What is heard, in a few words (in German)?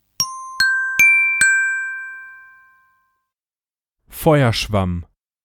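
"Feuerschwamm", Deutsch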